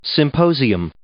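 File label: "symposium", English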